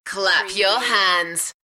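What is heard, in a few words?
UK female, voice drop; Clap your hands; beanstalk audio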